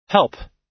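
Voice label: "help", US English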